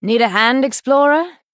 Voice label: Female Night Elf Play